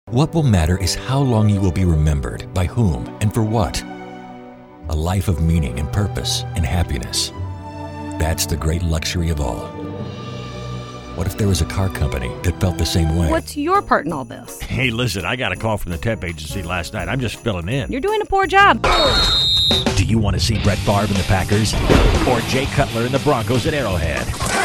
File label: Commercial